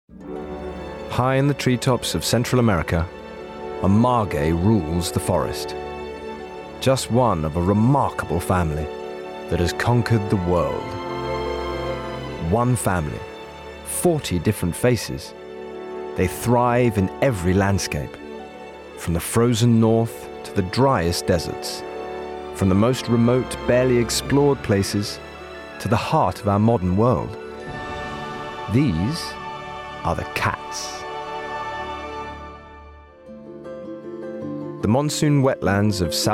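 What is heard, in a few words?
documentary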